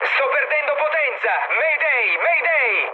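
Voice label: Italian damaged engine mood med, speech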